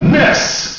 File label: Announcer; Ness; SSBM